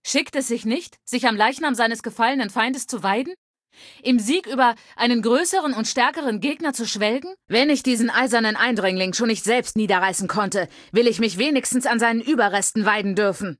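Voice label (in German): Female adult default suit why, German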